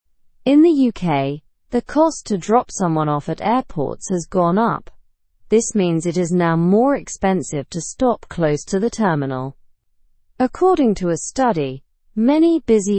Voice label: learn english through news lower intermediate slow; uk airports raise drop off fees